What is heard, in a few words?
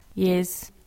"Yis", english, Ireland